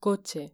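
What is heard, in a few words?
"coche" Spanish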